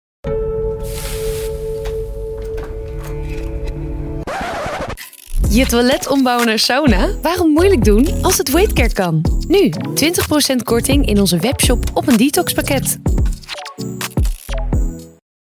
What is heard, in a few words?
Weight Care commercial